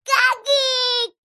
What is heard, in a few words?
human child boy 15 months shout daddy